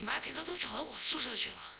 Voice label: phone